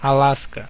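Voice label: "alaska", Brazilian Portuguese; gcompris; geography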